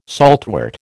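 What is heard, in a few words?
"saltwort", American English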